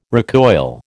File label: "recoil", American